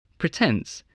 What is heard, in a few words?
"pretence", voice, spelling